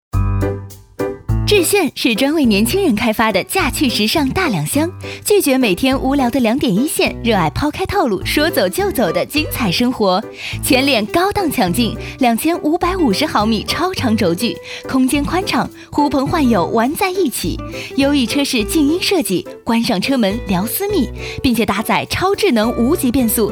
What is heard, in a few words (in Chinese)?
汽车广告